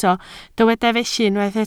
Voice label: "felly", Welsh

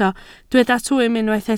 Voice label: "twym", Welsh

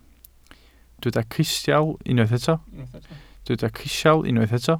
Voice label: "crisial", welsh

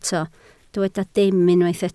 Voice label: "dim", Welsh

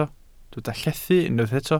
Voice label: "llethu", Welsh

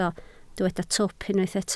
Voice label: "twp", Welsh

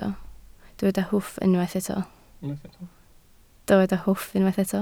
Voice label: "hwff", Welsh

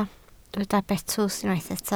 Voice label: "betws", Welsh

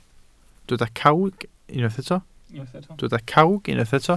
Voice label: "cawg", Welsh

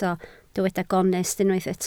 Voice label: "gonest", Welsh

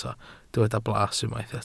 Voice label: "blas", welsh

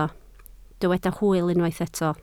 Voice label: "hwyl", Welsh

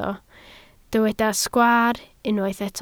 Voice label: "sgwaar", Welsh

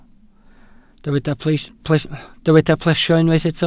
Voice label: "plesio", welsh

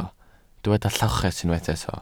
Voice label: "lloches", Welsh

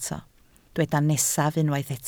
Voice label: "nesaf", Welsh

